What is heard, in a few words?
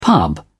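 "pub", British English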